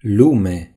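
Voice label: "world", Romanian; gcompris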